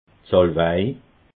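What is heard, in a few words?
"salwei", Alsatian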